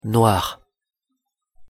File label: pronunciation fr "noir"